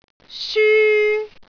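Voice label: "syu1", Cantonese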